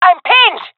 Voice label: pinned, jungle fem epic; player vo; Counter Strike Model Pack; CS:GO